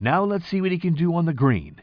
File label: commentator